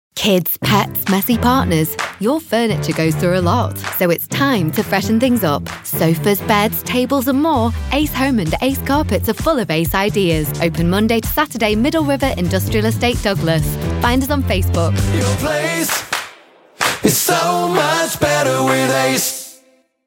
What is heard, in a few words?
radio advert